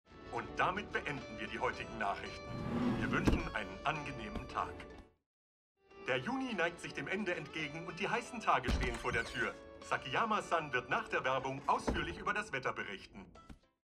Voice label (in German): Nachrichtensprecher